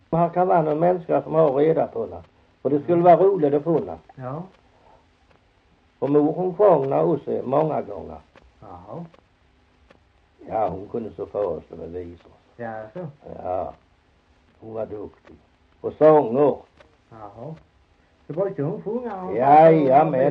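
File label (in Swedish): Hasslöv Halland, dialekter; Folkminnen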